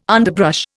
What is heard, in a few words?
"underbrush", Indian